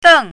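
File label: "deng4", Chinese pinyin, fourth tone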